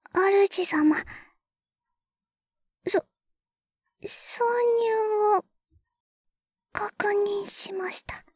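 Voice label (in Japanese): ハードウェアの挿入, システムボイス; ベル・カールステッド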